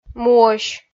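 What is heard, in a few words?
"муӧсь", Komi, female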